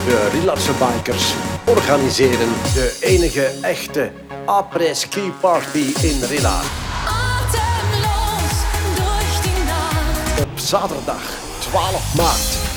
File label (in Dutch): reclame; De Rillaarse Bikers